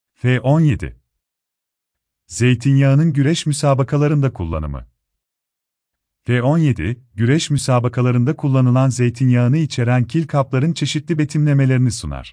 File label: turkish; chrisi tou elaioladou stous agones palis